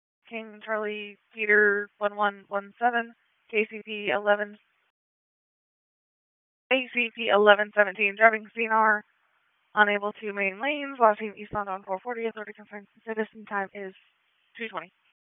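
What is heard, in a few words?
Police Southwest District Dispatch, p25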